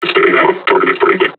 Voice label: taunt, combine charger; Half Life Alyx

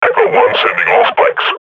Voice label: firing, combine grunt

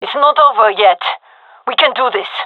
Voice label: round losing, gendermerie fem; vo

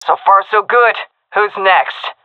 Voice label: round won, seal fem; vo; Counter Strike Model Pack; CS:GO

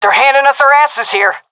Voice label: round losing, seal fem; vo; Counter Strike Model Pack; CS:GO

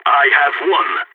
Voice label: last man standing, balkan; vo

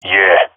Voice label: affirmation, male epic; player vo; Counter Strike Model Pack; CS:GO

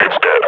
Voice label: announce kill zombie, combine; vo; grunt; Half Life Model Pack

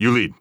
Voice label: following friend, heavy; vo; Counter Strike Model Pack; CS:GO; phoenix coop

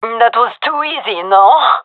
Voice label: round won, gendarmerie fem; vo

